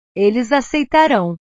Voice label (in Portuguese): "eles aceitarao", portugues; verbos